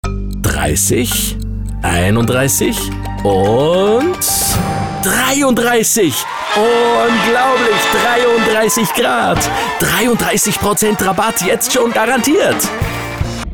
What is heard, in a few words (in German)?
Radiospots